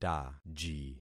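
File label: "taji", concatenated syllables; Affixing experiment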